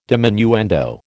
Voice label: "diminuendo", American

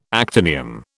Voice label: "actinium", British

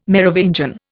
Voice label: "Merovingian", Indian

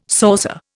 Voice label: "saucer", Indian

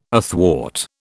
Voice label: "athwart", British

